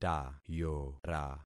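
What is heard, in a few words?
"tayora", concatenated syllables; Affixing experiment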